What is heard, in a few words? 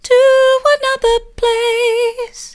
to another place soft; vocal